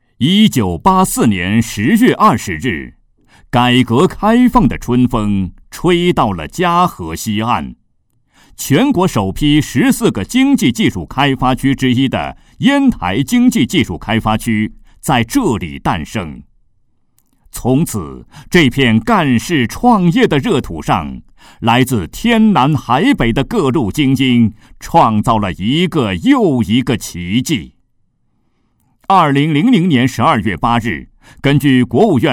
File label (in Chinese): 男, 国语男声配音; 烟台经济技术开发区